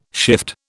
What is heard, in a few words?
"shift", British